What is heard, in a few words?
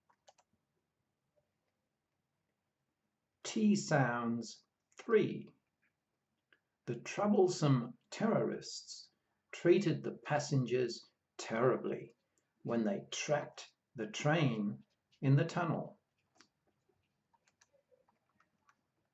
T sounds, pronunciation of australian english